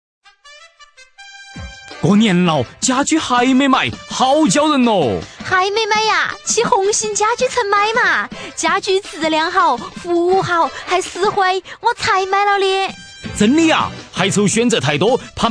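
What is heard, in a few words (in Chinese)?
男方言 男女合; 四川方言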